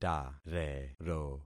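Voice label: "tarero", concatenated syllables; Affixing experiment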